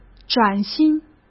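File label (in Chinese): "崭新", Mandarin; chinese-flashcards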